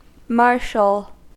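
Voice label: "marshal", US English; pronunciations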